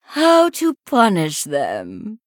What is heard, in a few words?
Female Sanlayn Emote Thinking